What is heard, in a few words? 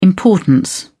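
"importance", British English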